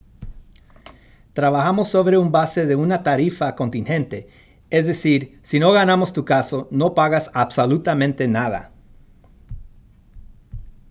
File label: Spanish Trabajamos